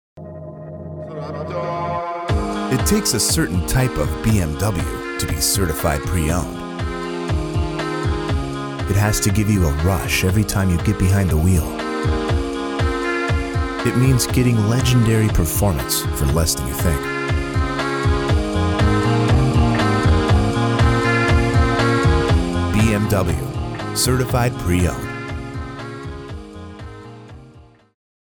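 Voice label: us luxury, American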